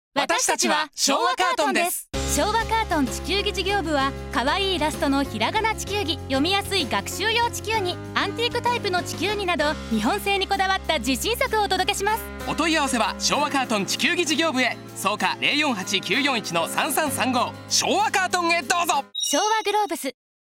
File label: radio